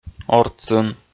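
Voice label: "ортсын", Komi, male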